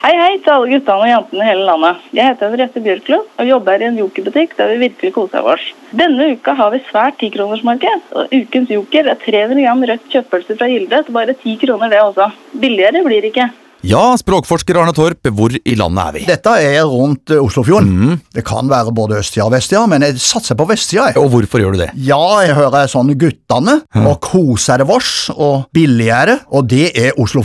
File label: radiospots